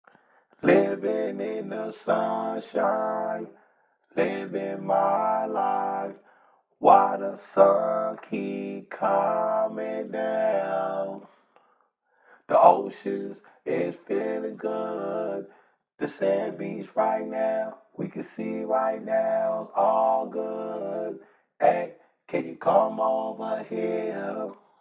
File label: hip hop 105 Cm, acapellas